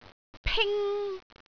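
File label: "ping1", Cantonese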